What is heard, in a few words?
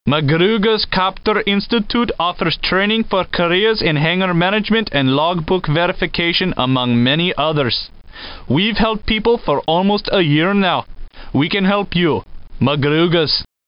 Commercial; SimCopter; MacGruger's Copter Institute